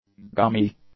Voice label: "gummy", english; adjectives